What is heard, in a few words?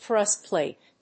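"thrust plate", English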